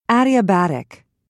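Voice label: "adiabatic", British English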